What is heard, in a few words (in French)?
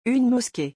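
"une mosquee", French; vocabulaire; le lexique des vacances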